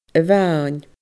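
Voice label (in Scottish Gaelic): "a mhain", Scottish Gaelic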